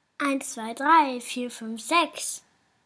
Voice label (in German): rhythmisch Zählen laut